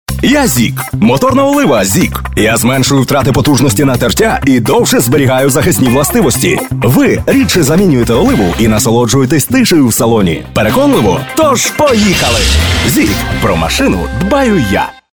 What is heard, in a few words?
ukr